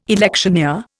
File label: "electioneer", Indian